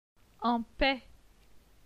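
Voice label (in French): "En paix", French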